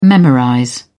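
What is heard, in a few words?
"memorize", British English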